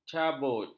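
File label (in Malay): "cabut", Malay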